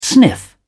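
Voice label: "sniff", British English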